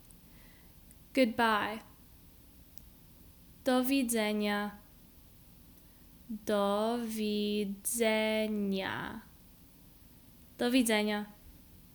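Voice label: Goodbye formal, Polish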